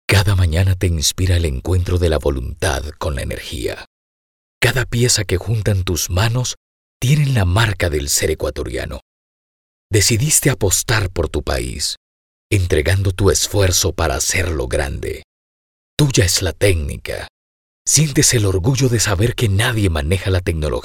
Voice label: Documentaries

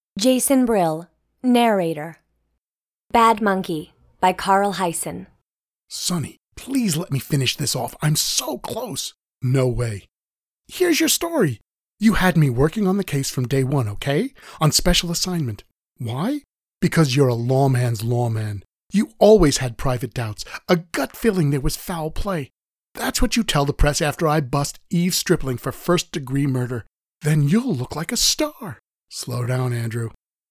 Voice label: AUDIOBOOK

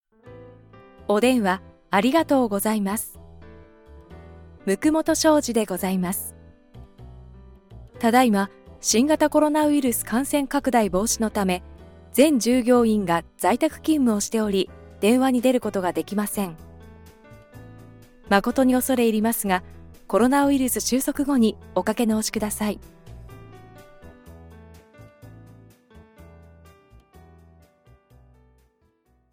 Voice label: phone IVR

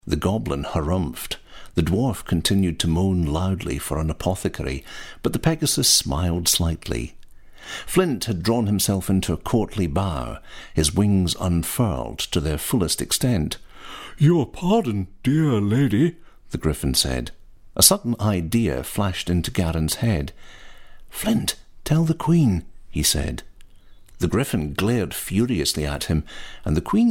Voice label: Audiobook Narration

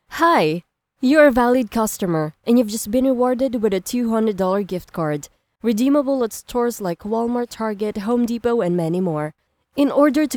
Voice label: IVR; Winsolution Corporation

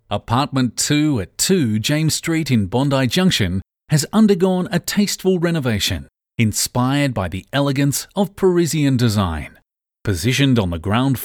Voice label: realestate corporate

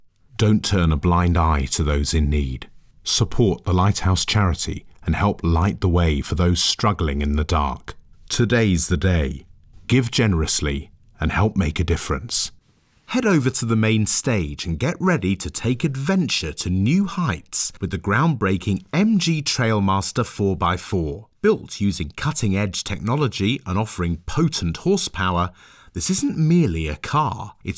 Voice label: Raw plus room tone

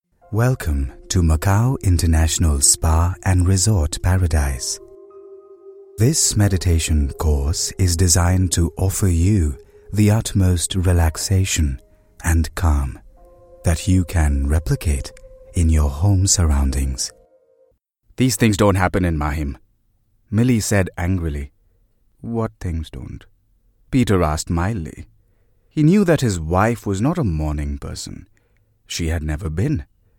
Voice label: AUDIOBOOK NARRATION

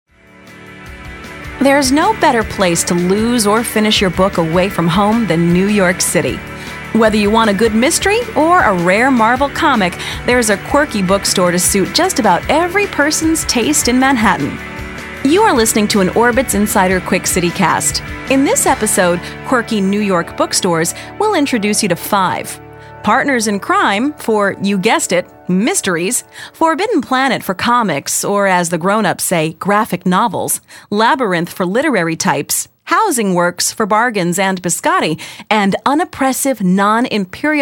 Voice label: Podcast

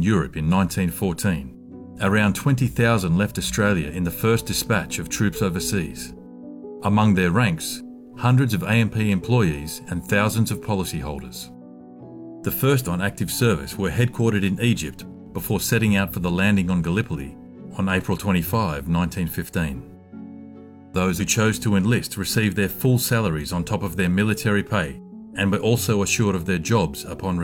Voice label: Documentary, Anzac Tribute; AMP

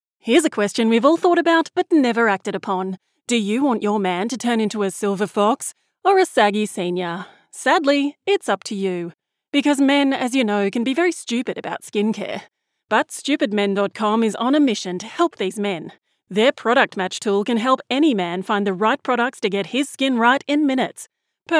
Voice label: ad; Stupidmen

